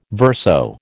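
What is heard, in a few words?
"verso", American English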